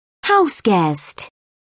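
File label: "houseguest", British English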